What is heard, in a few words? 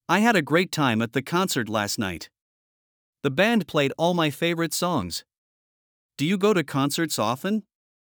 American, english accents; english accents challenge